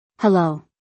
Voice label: "Hello", English; Basic Greetings and Courtesy